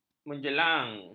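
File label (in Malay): "menjelang", Malay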